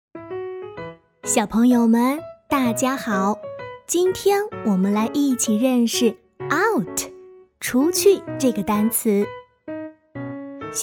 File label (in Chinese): 英文课件俏皮活泼夸张